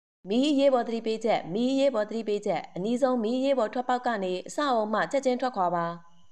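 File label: Burmese, female; telephony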